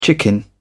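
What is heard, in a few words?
"chicken out", British English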